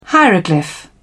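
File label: "hieroglyph", English, British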